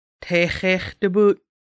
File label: take care, conversational Lushootseed; Conversational Lushootseed: Lessons 1-5